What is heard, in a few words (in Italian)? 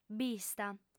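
"bista", lingua sarda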